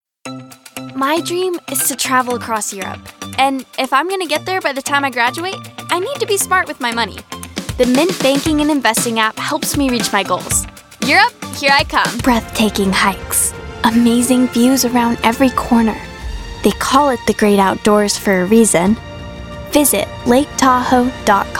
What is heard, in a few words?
Kid VO